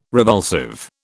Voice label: "revulsive", British

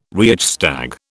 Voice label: "Reichstag", British

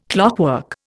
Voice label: "clockwork", Indian

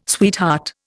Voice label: "sweetheart", Indian

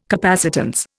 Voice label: "capacitance", Indian

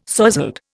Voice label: "sozzled", Indian

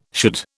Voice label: "should", British

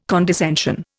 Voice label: "condescension", Indian

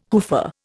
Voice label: "hoofer", Indian

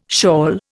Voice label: "shawl", Indian

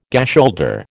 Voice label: "gasholder", American

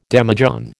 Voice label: "demijohn", American

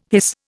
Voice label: "hiss", Indian

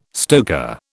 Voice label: "stoker", British

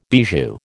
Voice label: "bijou", American

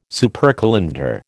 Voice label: "supercalender", American

